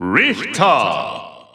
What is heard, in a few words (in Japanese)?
Japanese Announcer; SSBU